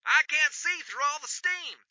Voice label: Radio Chatter "I can't see through all the steam!"